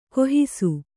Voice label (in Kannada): "ಗಾಹಿಸು", Kannada